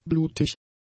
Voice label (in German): "blutig", German; adjectives